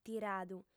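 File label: "tiradu", female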